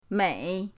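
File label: "mei3", Chinese; pinyindct-open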